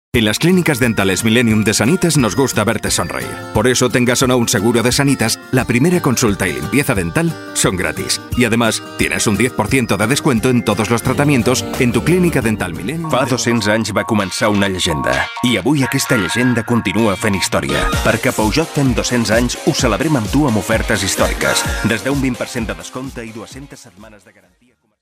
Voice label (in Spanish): Masculino; Sonoarte